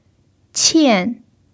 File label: "qian4", mandarin pinyin